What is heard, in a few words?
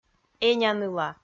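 "эня-ныла", Komi, female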